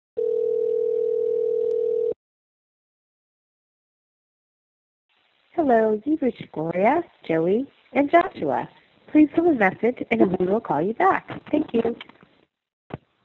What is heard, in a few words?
Answering Machine